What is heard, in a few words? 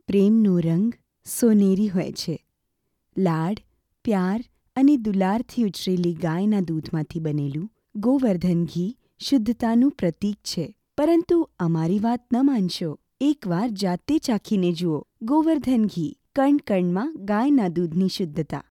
gujarati Commercial